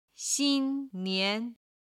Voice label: "xin1nian2", chinese